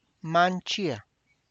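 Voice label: tlacochahuaya, Zapotec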